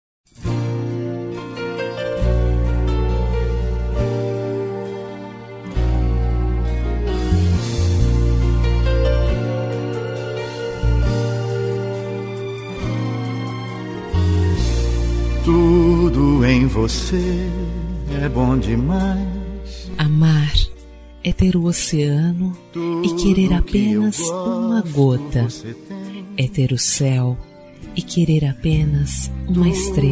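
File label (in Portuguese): romantic poetry female, Telemensagens; Românticas; ROM POESIA FEM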